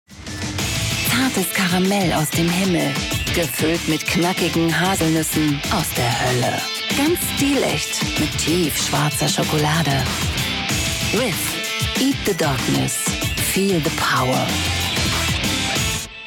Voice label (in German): Schokolade Werbung, Sprecherin